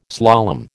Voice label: "slalom", American